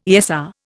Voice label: "ESR", Indian

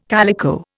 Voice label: "calico", Indian

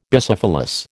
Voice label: "Bicephalous", American

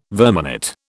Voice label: "verminate", British

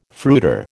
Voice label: "fruiter", American

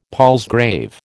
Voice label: "palsgrave", American

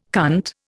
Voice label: "cunt", Indian

